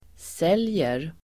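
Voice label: "säljer", Swedish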